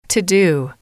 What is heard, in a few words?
"to Do", english